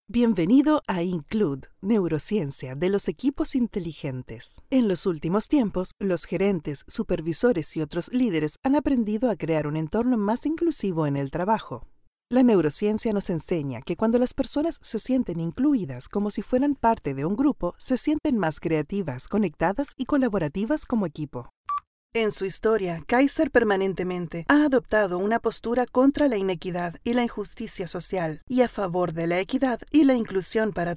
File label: spanish latin american audio guides